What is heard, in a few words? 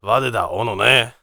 warrior conversation emotion neutral